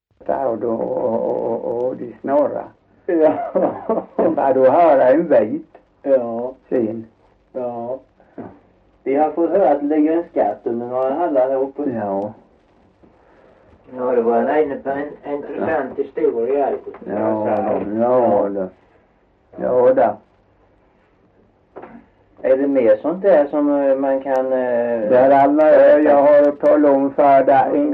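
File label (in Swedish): Torhamn Blekinge, Svenska sagor dialekter; Folkminnen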